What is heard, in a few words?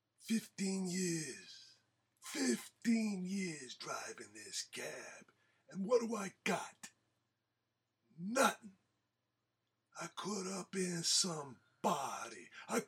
New York Cabbie